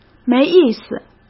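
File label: "没意思", Mandarin Chinese; hsk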